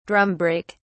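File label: "drum brake", British English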